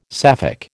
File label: "Sapphic", American